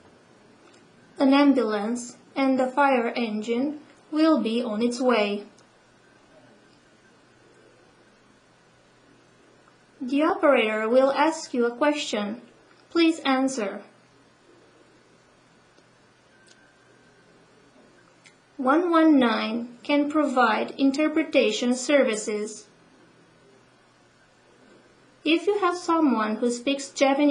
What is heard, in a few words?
emergency call english; About "Emergency call" contact information/「緊急時」の連絡先について; English/英語